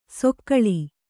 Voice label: "ಸೊಕ್ಕಳಿ", Kannada; sokkaḷi

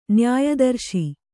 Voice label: "ನ್ಯಾಯದರ್ಶಿ", Kannada

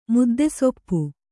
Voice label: "ಮುದ್ದೆಸೊಪ್ಪು", Kannada

